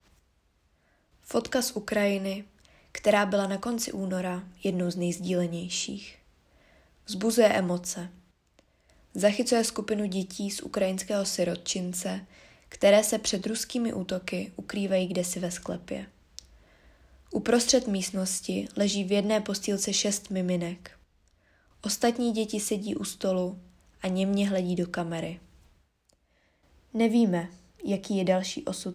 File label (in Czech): narace